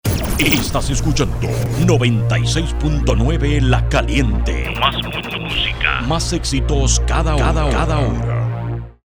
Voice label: Image radio